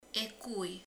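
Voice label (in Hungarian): "kar", Hungarian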